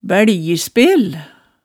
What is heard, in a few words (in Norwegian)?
"bæljispel", hemsedalsmaalet tale